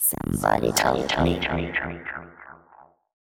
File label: ELECTRO MALE 140 A, Vocals